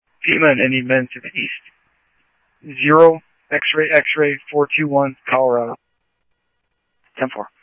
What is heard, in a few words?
Police, scanner audio